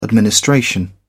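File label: "administration", British English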